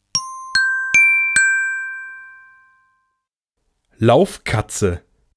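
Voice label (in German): "Laufkatze", German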